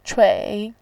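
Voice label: "chui", Mandarin Chinese, third tone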